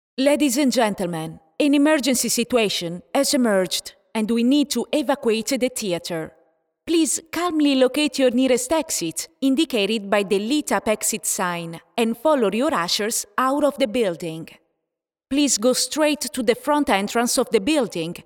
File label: EN Live Announcer